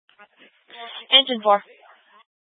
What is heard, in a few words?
Fire Department Dispatch; Grand Junction